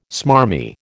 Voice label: "smarmy", American

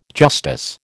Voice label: "justice", American

